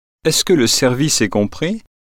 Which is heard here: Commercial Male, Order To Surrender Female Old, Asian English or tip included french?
tip included french